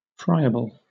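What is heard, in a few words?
"friable", British English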